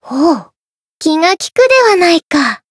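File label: healed, Japanese; leviachan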